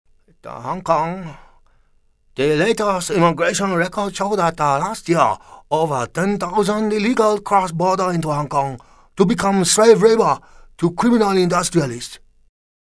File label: Chinese (Hong Kong)